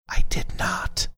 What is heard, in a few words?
whispering i did not